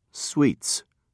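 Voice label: "sweets", British English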